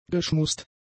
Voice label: "geschmust", German; adjectives

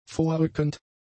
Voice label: "vorrückend", German; adjectives

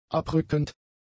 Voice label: "abrückend", German; adjectives

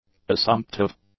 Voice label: "assumptive", English; adjectives

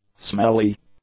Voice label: "smelly", english; adjectives

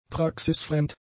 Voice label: "praxisfremd", German; adjectives

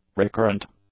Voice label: "recurrent", English; adjectives

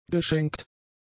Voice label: "geschenkt", German; adjectives

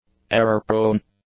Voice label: "error-prone", English; adjectives

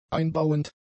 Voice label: "einbauend", german; adjectives

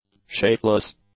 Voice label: "shapeless", English; adjectives

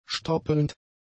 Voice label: "stoppelnd", German; adjectives

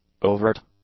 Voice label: "overt", English; adjectives

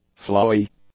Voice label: "flawy", english; adjectives

